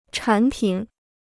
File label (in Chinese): "产品", Chinese